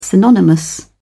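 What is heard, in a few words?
"synonymous", British English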